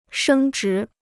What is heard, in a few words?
"升值", Chinese